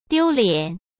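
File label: "丢脸", Mandarin Chinese; chinese flashcards; hsk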